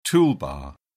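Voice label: "toolbar", British English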